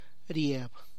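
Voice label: Tlacochahuaya Zapotec; falls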